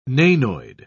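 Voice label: "nanoid", British English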